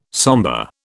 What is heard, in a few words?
"sombre", British